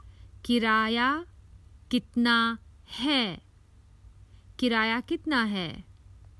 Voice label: How much is the fare, Common Hindi Phrases for travel